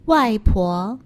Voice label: "waipo", Chinese; Survival Chinese